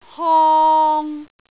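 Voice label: "hong3", Cantonese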